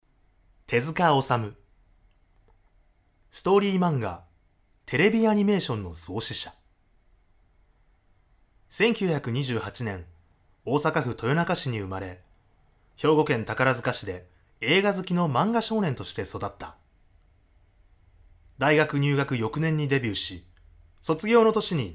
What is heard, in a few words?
male; 偉人の紹介